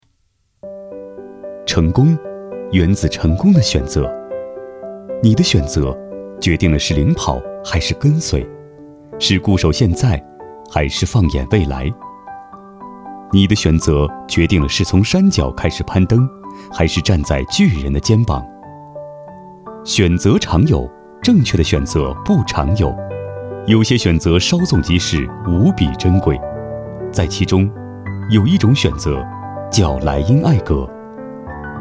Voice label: 男 广告; 莱茵艾格

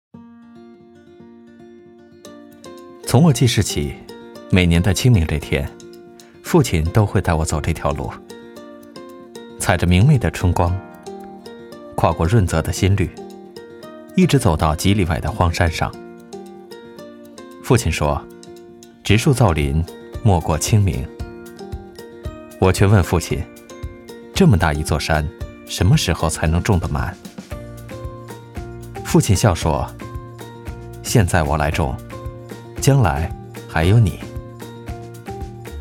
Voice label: 男 轻快讲述

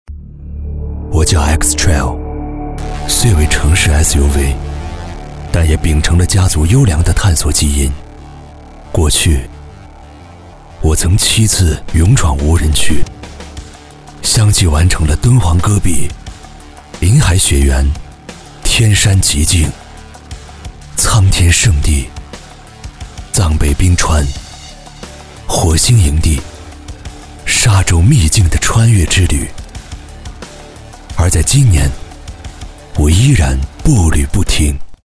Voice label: 男 广告; 东风日产-新奇骏-X-Trail

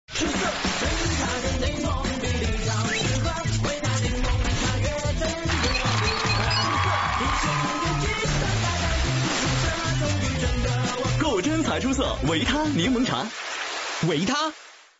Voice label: 男, 柠檬茶; 维他

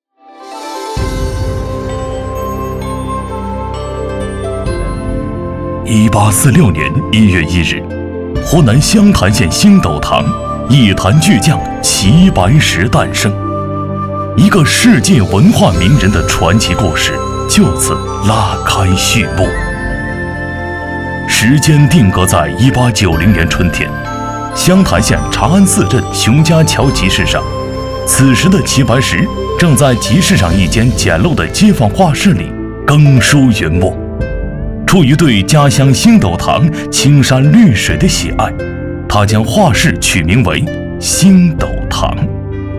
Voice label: 男 纪录; 齐白石

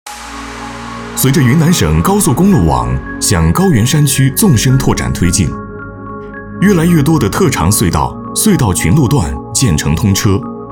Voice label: 男 专题宣传 科技温暖

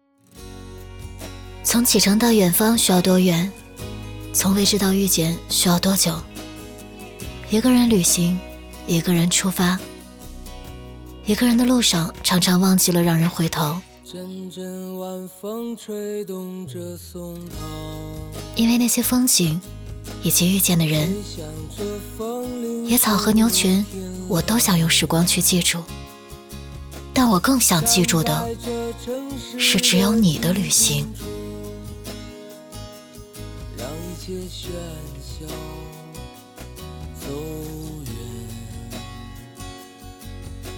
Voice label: 女 独白 自然轻松 素人; 只想记住和你的旅行

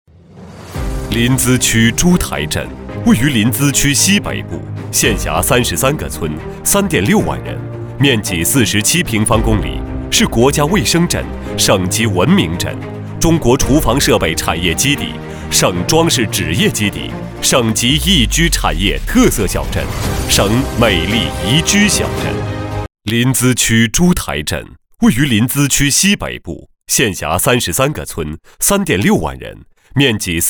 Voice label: 男 专题 特色小镇

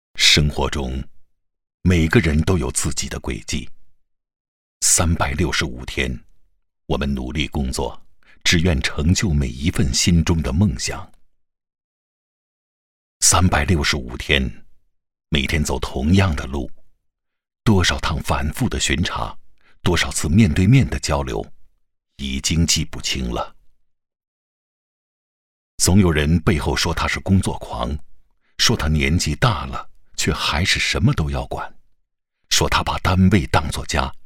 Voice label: 广告; 永不止步

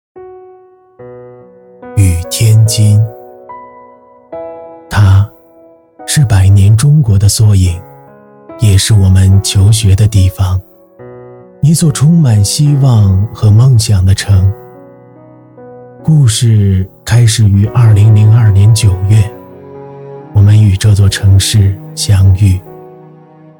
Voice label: 男 微电影 走心沙画

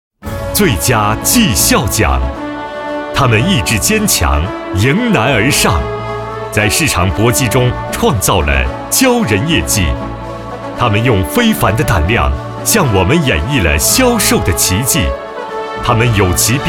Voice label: 男 颁奖; 国内销售部

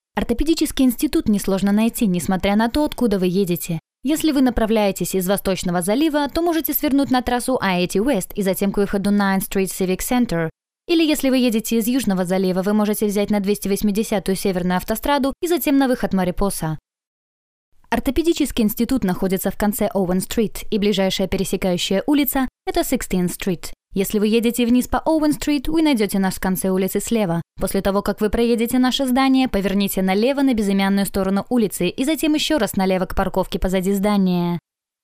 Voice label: 俄语女 干音 激情语调

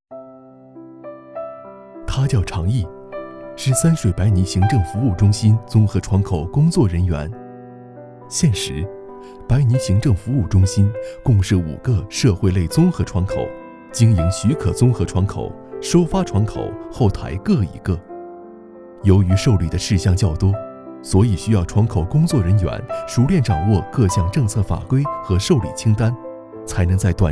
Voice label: 男 解说 行政服务中心; 三水白坭行政服务中心

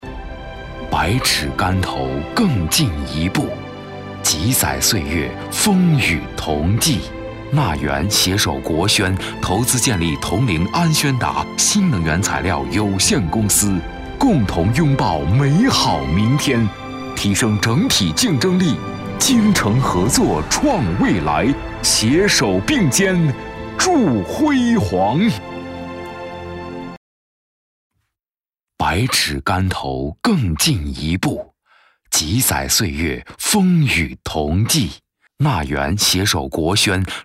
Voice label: 男 专题; 长协集团公司

